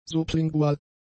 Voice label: "sublingual", German; adjectives